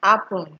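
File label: "happen", Nigerian Pidgin, female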